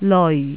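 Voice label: "leoi4", Jyutping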